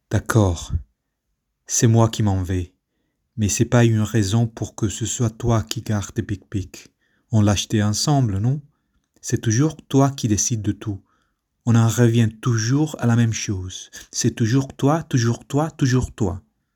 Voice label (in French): francais